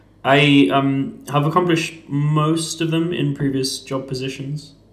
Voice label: interviewee male filler